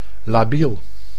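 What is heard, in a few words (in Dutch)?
"labiel", Dutch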